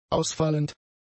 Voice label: "ausfallend", German; adjectives

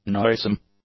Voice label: "noisome", english; adjectives

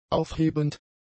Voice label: "aufhebend", German; adjectives

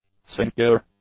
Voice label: "sinecure", English

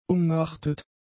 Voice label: "umnachtet", German; adjectives

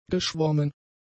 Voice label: "geschwommen", German; adjectives

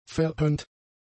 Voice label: "verhöhnt", German; adjectives

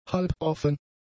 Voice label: "halboffen", German; adjectives

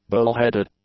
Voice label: "bull-headed", English; adjectives

